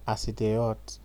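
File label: "as e dey hot", Nigerian Pidgin, male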